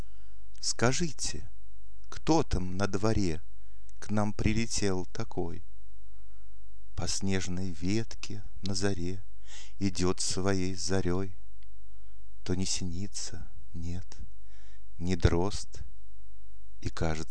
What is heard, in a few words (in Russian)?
стихотворение, читает автор